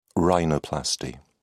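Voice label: "rhinoplasty", British English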